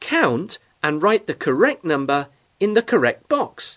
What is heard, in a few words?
"count", English